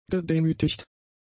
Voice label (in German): "gedemütigt", German; adjectives